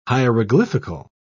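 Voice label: "hieroglyphical", English, British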